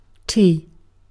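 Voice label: "tea", British English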